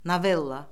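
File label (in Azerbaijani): "novella", Azerbaijani; Orfoqrafiya Lüğəti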